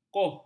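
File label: "kouh", Malay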